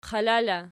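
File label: "qala" locative, Archi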